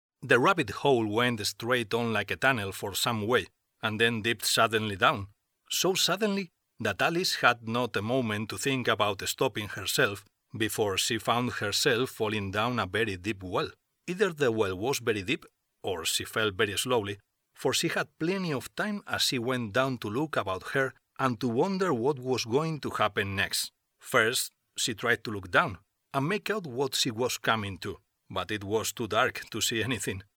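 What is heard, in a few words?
Spanish Spain male voice over, English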